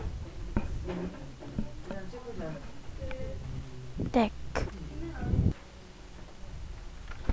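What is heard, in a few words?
"neck", spoken word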